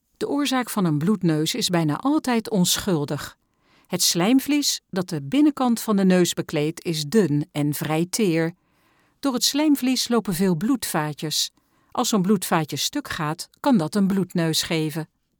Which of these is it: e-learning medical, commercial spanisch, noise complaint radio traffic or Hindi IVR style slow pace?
e-learning medical